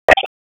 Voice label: Police LE Ops 5; Raleigh; wake

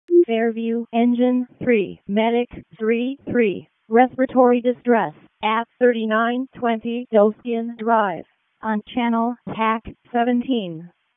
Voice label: EMS ALERT, p25; wake

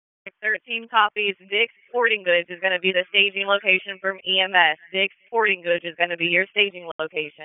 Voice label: PS TAC, p25

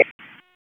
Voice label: Police North District Tac 1, p25; Raleigh; wake

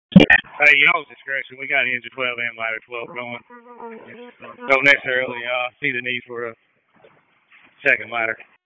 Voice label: FIRE OPS, p25; FIRE OPS 1; wake

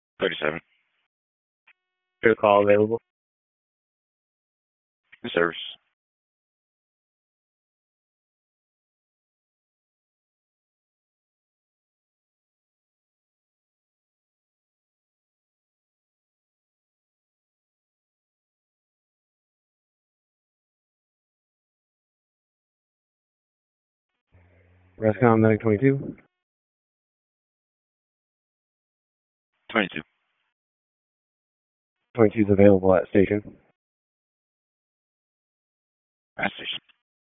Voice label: WC EMS DISP1, P25 recordings

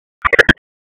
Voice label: Police Spec Ops Tac 4, p25; wake

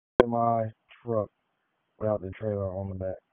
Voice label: Police Northeast District Disp, p25

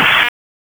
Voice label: Police Spec Ops Tac 4, p25; Raleigh; wake

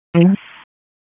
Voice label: EMS ALERT

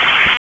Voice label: Police North District Tac 1, p25; Raleigh

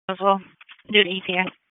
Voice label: WSO DISP 3, P25 recordings; wake

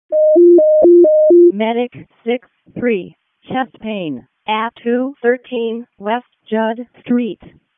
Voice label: EMS ALERT; p25

